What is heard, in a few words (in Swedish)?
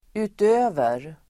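"utöver", swedish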